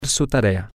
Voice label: his homework, spanish, M